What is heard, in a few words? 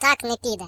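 "So long", British English; hedgewars; Default uk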